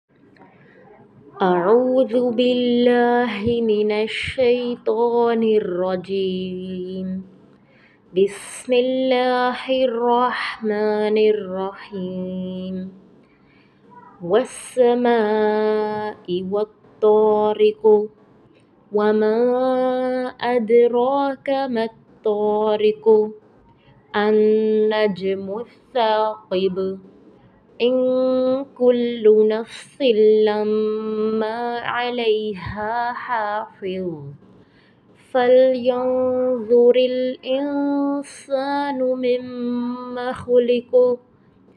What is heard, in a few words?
Recitation; karachi pakistan